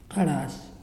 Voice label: "sun", Muyuw; Lae Unitech

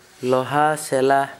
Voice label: blacksmith female; Gta

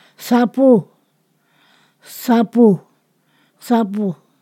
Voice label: "touch", Ponosakan

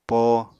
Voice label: "poo", Apatani

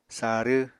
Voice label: "sarw", apatani

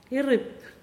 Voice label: "fan", Pingelapese

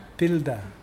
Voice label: "choose", Mokilese

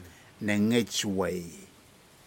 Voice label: birds Island imperial fruit dove, Aneityum

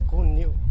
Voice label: "rasgar" softer, teotitlan

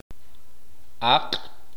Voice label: "lengua", K'iche'

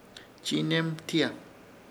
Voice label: "Chiinermtiya", Ishir Chamacoco; intertar

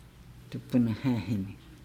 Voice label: "grandmothers", Nukuoro

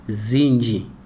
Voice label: "many", Chikunda

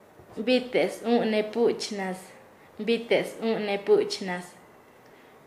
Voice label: "compartir el llano de otro", Nasa Yuwe

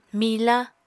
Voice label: "mila", Apatani

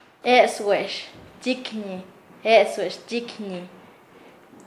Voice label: Nasa Yuwe; quedar suspendido

